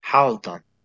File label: "haldan", Proto Germanic